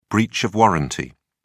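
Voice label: "breach of warranty", British English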